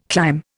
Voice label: "climb", Indian